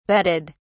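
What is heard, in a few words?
"betted", British English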